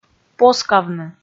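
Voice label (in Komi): "поскавны" Komi, female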